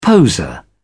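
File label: "poseur", British English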